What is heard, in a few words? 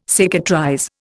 "cicatrize", Indian